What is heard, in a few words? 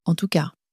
"en tout cas", French